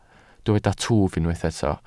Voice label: "twf", Welsh

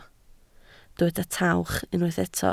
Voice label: "tawch", Welsh

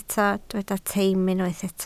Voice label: "teim", Welsh

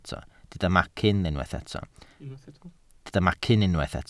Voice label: "macyn", welsh

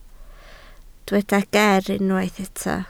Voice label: "ger", Welsh

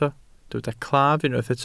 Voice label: "claf", welsh

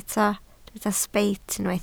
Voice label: "sbeit", Welsh

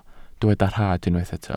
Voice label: "rhad", Welsh

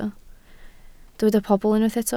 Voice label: "pobl", welsh

